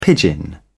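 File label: "pidgin", British English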